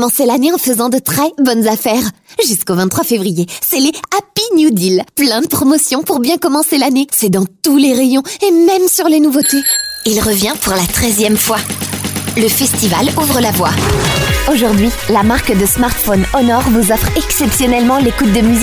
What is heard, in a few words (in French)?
VO Voix F